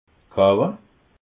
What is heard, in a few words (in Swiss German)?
"kaue", alsace